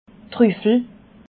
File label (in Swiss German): "truffel", alsace